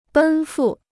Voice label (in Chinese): "奔赴", Chinese